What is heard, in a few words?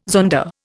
"zonda", Indian